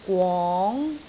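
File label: "gwong2", Cantonese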